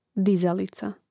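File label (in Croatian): "dizalica", Hrvatski; mreznik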